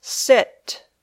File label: "sit", English